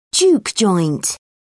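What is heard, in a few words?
"juke joint", British English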